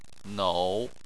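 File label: "nou" third tone, Chinese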